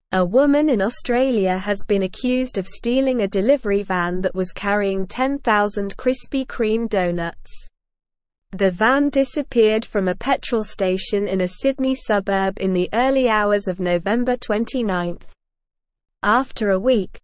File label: English Newsroom Advanced SLOW Reading; Woman Charged with Stealing Krispy Kreme Delivery Van